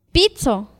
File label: "pitzotl", Náhuatl; puerco